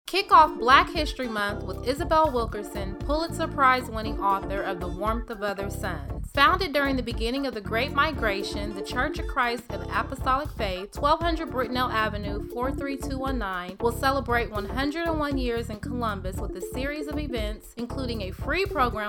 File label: FM Radio Advertisement; 106.3FM; Isabel Wilkerson Event